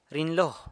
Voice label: "rinylo", San Lucas Quiaviní; sees